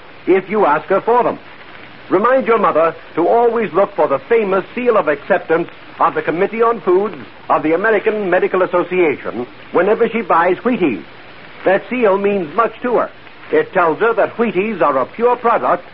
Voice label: vintage commercials cereal; wheaties

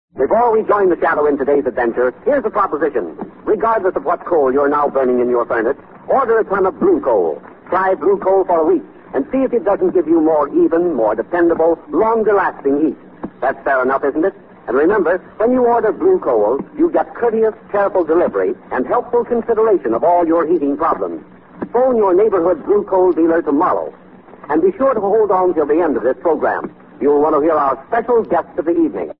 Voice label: vintage commercials blue coal

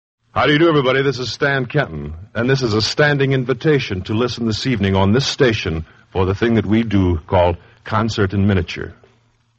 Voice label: promos for concert in miniature

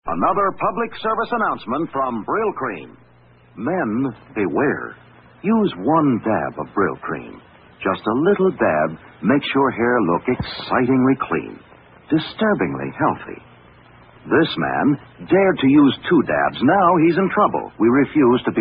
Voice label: vintage commercials; brylcream

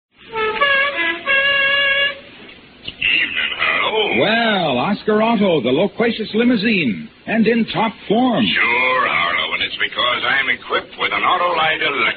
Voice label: vintage commercials; auto lite

